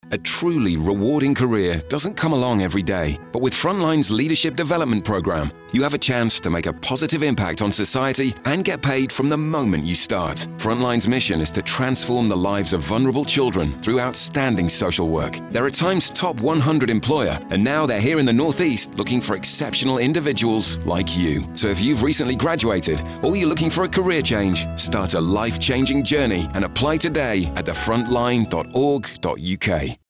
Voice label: voiceover